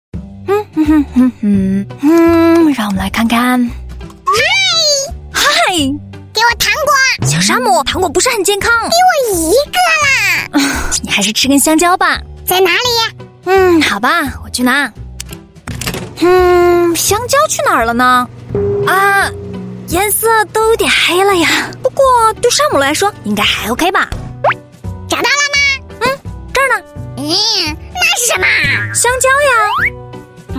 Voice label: Mandarin China Character Voices; Slick Slime Sam